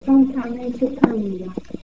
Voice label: Korean, christmas